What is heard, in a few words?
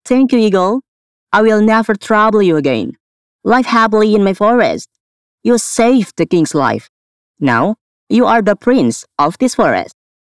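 character English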